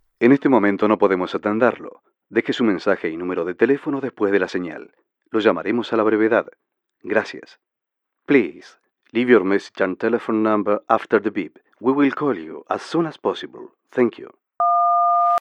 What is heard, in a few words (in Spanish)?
Contestador telefónico